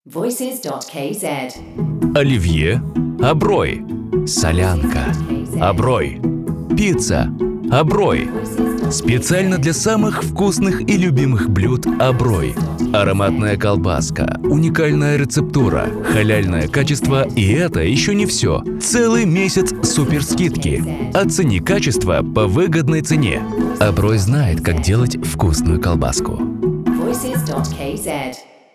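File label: Kyrgyz Russian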